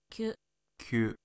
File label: "kyu", Japanese